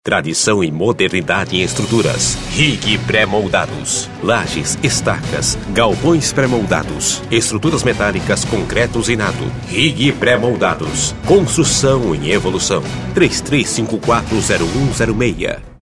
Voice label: spot